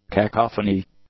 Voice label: "cacophony", English; nouns

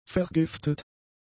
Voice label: "vergiftet", German; adjectives

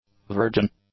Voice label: "virgin", English; adjectives

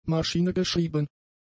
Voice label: "maschinegeschrieben", German; adjectives

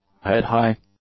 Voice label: "head-high", English; adjectives

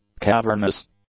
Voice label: "cavernous", English; adjectives

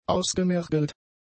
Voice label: "ausgemergelt", German; adjectives

